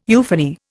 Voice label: "euphony", Indian

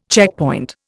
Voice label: "checkpoint", Indian

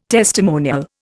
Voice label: "testimonial", Indian

